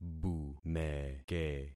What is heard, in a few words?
"bumeke", concat syllables; Affixing experiment